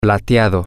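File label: silver, Spanish, male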